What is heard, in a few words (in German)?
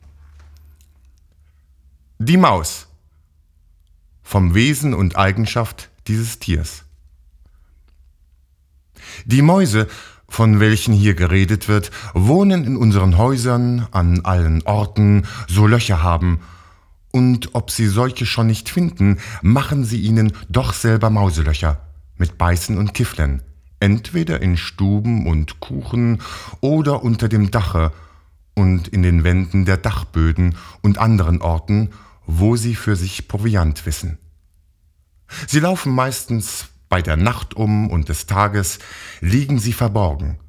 Hörspiel Buch; Gesner